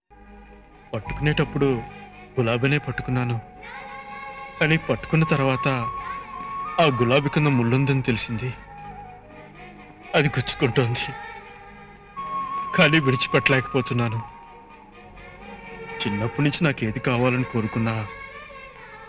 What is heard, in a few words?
emotional dialogue telugu; suswagatam dialogue